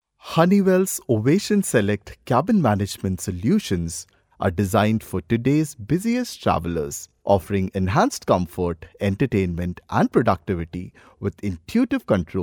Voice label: male indian voice over talent sales

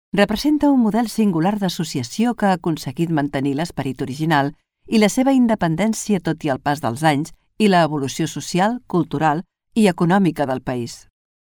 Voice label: female catalan voice over narrative

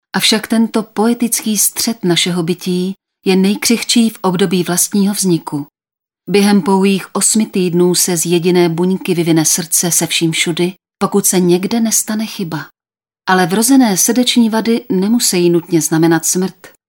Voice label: female czech voice over narrative

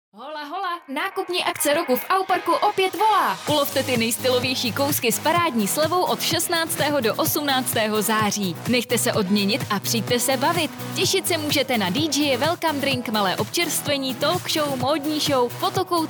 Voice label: female czech voice over sales

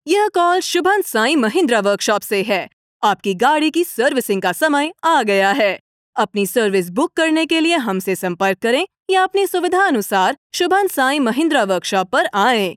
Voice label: female hindi voice over narrative

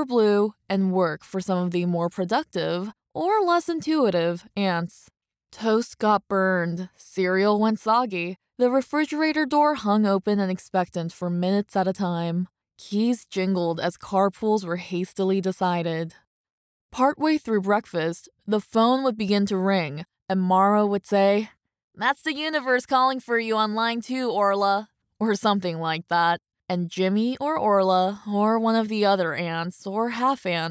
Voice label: female korean voice over narrative

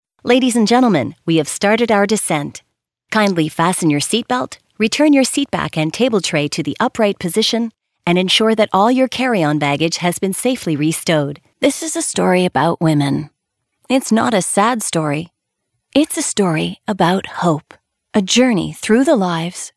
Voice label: female canadian voice over character

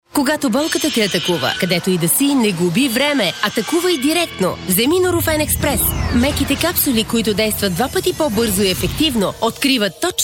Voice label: female bulgarian voice over sales

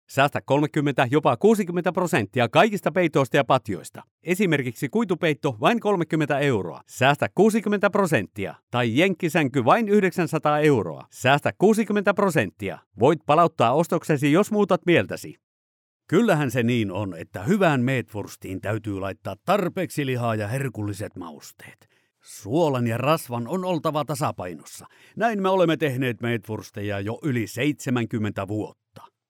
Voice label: male finnish voice over sales

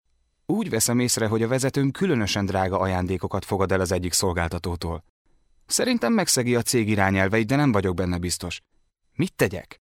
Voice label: male hungarian voice over talent narrative

